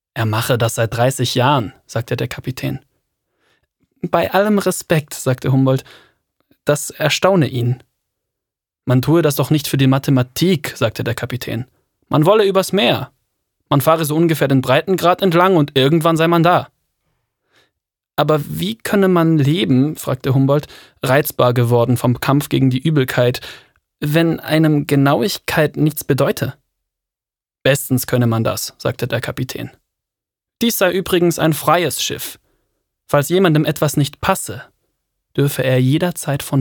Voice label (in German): Hoerspiel